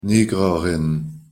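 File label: "nigrerin", Luxembourgish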